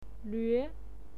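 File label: "lüe" second tone, pinyin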